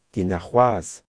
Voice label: goodbye, breton; Ken arc’hoazh; see you tomorrow